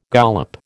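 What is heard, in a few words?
"gollop", American English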